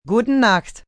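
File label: Goodnight German, Goodbyes, male, foreign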